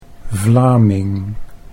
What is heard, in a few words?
"Vlaming", Dutch